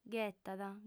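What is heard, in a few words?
"ghetat", female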